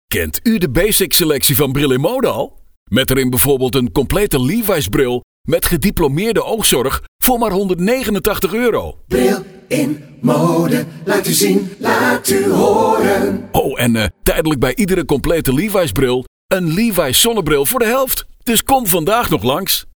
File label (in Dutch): radiospot; levis